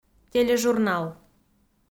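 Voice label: "тележурнал", Komi, female; audio dict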